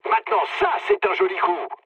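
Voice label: player killed enemy, mood low, French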